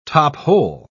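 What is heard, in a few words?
"top-hole", British English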